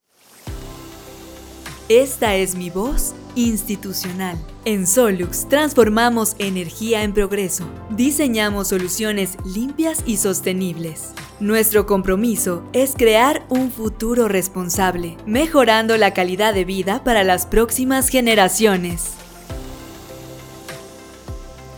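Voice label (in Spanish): Institucional Musicalizado, locutora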